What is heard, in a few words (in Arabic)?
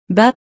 "بَ", arabic letters